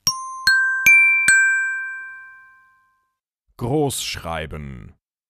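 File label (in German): "grossschreiben", German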